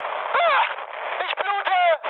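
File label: German damaged pilot mood high, speech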